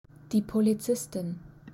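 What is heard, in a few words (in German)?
"polizistin", German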